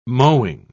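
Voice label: "mowing", British English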